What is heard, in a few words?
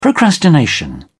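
"procrastination", English, British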